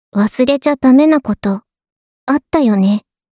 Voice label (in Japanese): リマインダー通知ボイス; 春日野穹